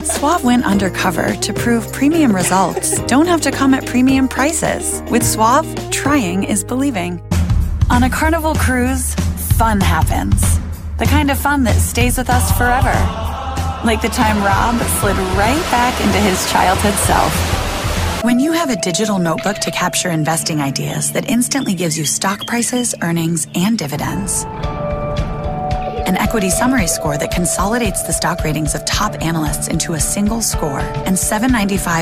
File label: Voiceover, Commercial, Women; New York